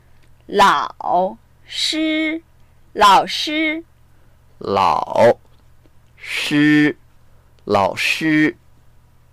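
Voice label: "lao3 shi1", Mandarin Chinese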